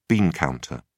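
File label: "bean counter", English, British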